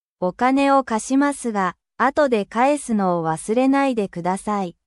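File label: Japanese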